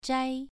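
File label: "zhai2", Chinese pronunciation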